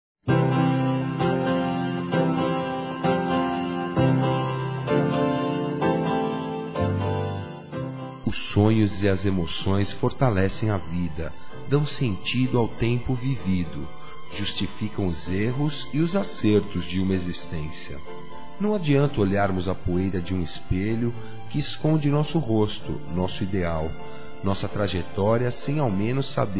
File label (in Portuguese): A Felicidade Está Logo Ai, masc; Telemensagens 2017